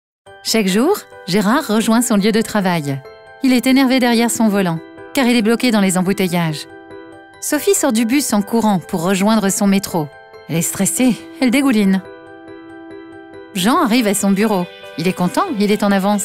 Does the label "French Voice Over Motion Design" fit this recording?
Yes